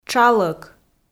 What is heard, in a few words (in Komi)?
"тшалӧк", Komi